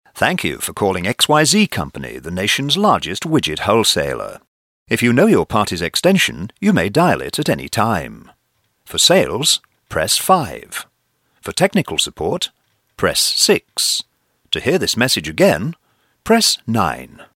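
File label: English british male; dictor; dictor-sounds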